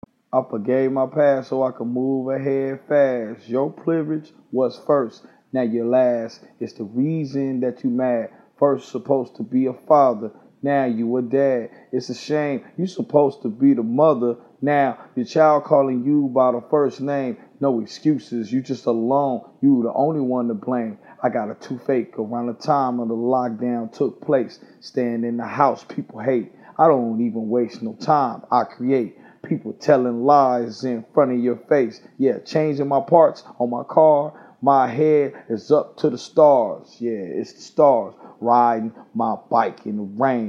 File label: hip hop, 172, Dm, acapella